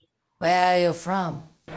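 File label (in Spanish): Voice Acting, Spanish, female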